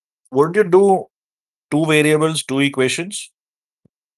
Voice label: voice message